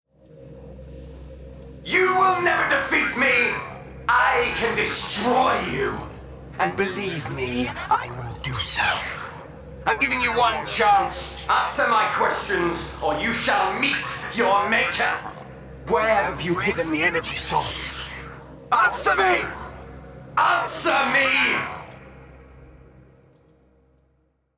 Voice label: VO Reel Character Evil Alien